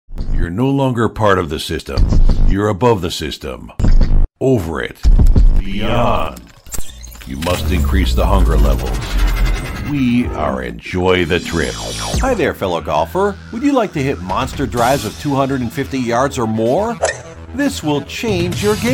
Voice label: Commercial